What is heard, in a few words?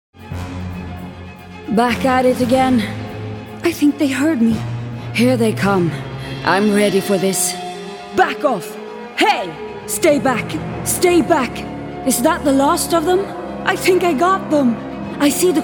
swedish video games